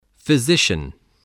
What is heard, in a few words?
"physician", voice, English